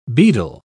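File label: "bedell", English, British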